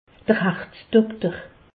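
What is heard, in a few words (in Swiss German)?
"harzdokter", Alsace, Haut Rhin; medecine; cardiologue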